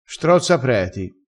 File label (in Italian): "strozzapreti", crespinese